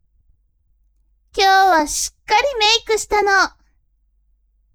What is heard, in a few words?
woman